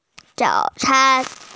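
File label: "zao can", Mandarin Chinese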